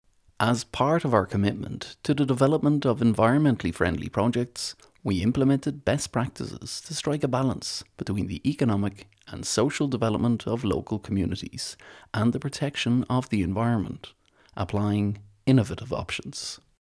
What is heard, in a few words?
locutor irlandes irish voiceover